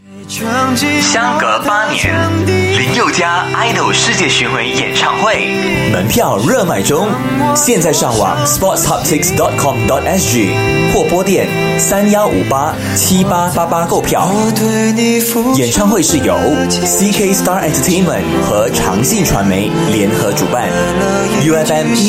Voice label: Mandarin